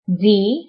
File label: armenian horse